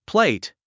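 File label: "plate", English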